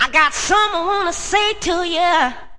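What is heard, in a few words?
diva got something to say, cheesy divas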